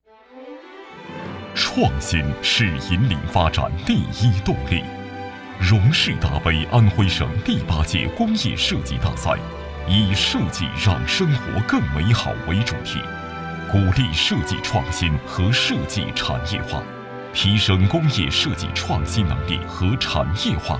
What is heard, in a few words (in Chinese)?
男,宣传; 设计大赛